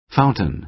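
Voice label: "fountain", American English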